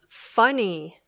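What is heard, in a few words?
"funny", English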